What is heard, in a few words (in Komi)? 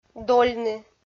"дольны", Komi